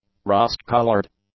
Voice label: "rust-colored", English; adjectives